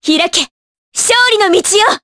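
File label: Vox Skill, voices, Japanese; kingsraid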